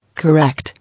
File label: "Correct", English